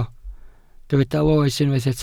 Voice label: "loes", Welsh

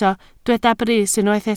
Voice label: "brys", Welsh

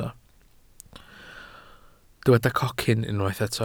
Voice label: "cocyn", welsh

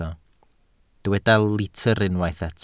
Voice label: "litr", Welsh

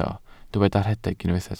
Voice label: "rhedeg", Welsh

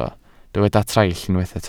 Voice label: "traill", Welsh

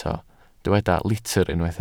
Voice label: "litr", Welsh

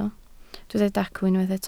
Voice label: "dacw", Welsh

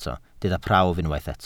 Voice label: "prawf", Welsh

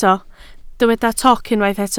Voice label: "toc", Welsh

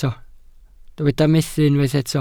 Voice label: "methu", Welsh

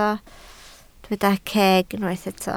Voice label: "ceg", Welsh

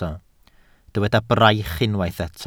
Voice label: "braich", Welsh

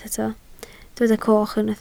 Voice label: "coch", Welsh

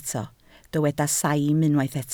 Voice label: "saim", Welsh

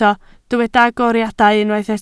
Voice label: "agoriadau", Welsh

